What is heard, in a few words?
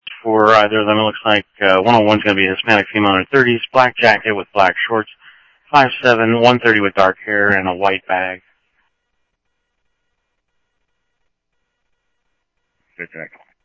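Mesa Police Department, scanner audio trunk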